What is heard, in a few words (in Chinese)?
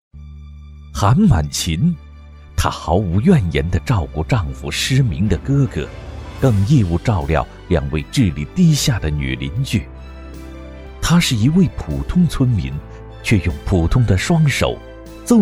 男 宣传片 深情颁奖